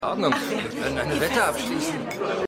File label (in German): Frau